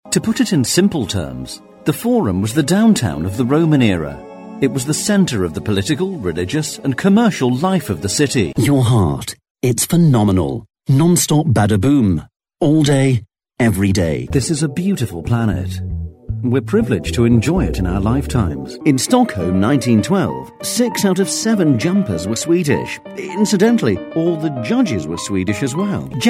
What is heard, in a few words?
Male English